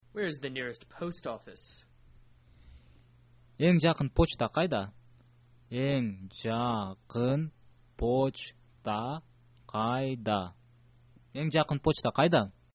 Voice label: where is post, kyrgyz

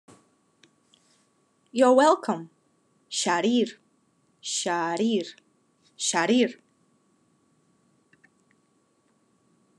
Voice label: Khakas You're welcome

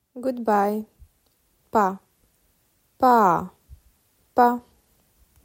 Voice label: "Good bye", Romanian

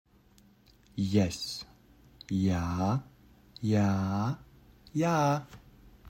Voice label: "Yes", Latvian; the talking latvian phrasebook

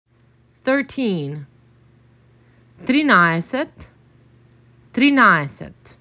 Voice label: MAC 6, macedonian phrasebook

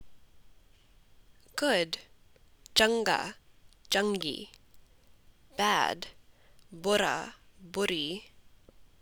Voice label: Punjabi Good and Bad

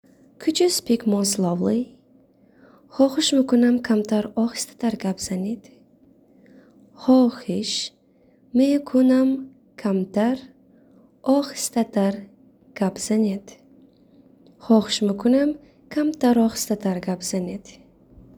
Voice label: Could You Speak More Slowly Tajik

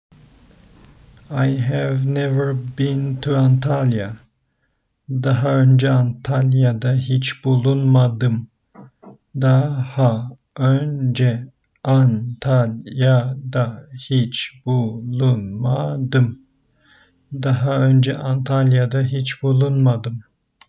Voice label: I have never been to antalya, turkish; the talking turkish phrasebook

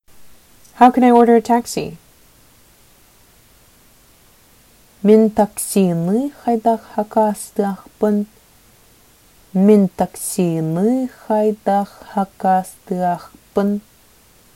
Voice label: Sakha How can I order a taxi

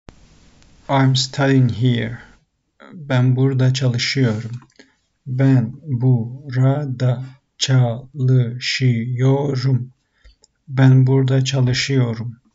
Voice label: "I am studying here", Turkish; the talking turkish phrasebook

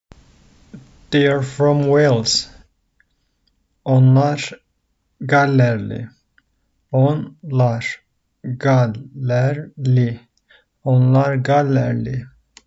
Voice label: they are from wales, Turkish; the talking turkish phrasebook

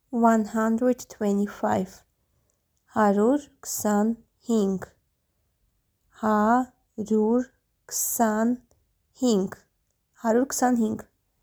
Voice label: "125 haryur ksan hing", Armenian

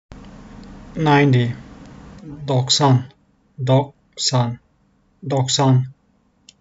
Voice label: TRK ninety 90, turkish phrasebook; the talking turkish phrasebook

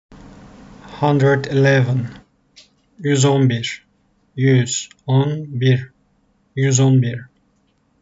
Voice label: hundred eleven 111, turkish; the talking turkish phrasebook

